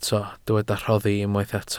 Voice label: "rhoddi", Welsh